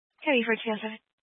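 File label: Fire Dispatch, Scanner audio recording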